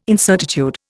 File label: "incertitude", Indian